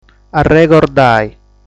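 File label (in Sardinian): "Arregordai", Sardinian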